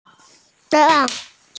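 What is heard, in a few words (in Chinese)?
"bai se", Mandarin Chinese, female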